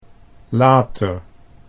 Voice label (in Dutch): "later", Dutch